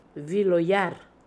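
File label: "Viloyer", Russian, pronounciations; Fourth Way; Beelzebub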